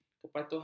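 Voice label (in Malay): "kepatuhan", Malay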